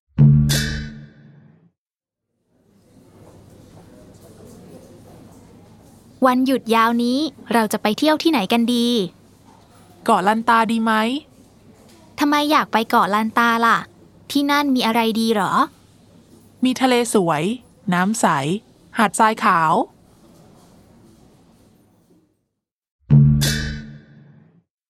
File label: dialog, thai; thaipod101